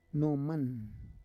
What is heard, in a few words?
"noman", Roon